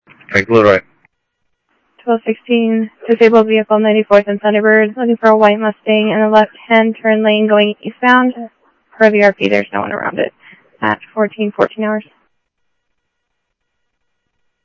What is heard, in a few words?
Police, scanner audio